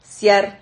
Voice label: "siar", Silabas

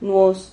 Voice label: "nuos", Silabas

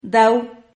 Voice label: "dau", Silabas

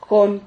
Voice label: "jon", Silabas

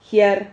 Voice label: "jiar", Silabas

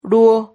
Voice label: "ruo", silabas

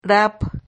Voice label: "rap", Silabas

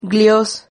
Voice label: "glios", Silabas

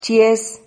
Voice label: "chies", Silabas

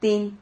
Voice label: "tin", Silabas

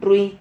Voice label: "rrui", silabas

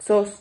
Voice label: "xos", Silabas

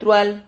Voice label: "trual", Silabas

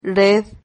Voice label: "red", Silabas

